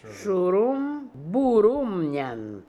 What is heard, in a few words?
"Shooroombooroomnian", pronounciations; Fourth Way; Beelzebub